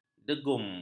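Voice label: "degum", Malay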